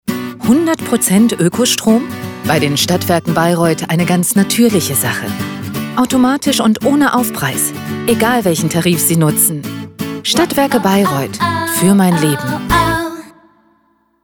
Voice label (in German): Werbung; Bayreuth